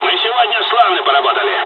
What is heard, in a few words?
dialogs russian; War Thunder